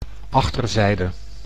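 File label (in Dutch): "achterzijde", Dutch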